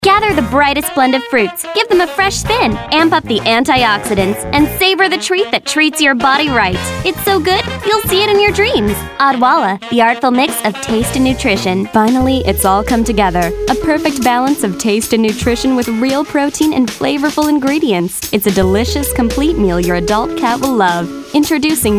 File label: English US Commercial